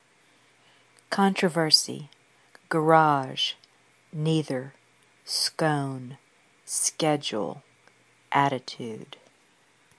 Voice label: jennings louisiana female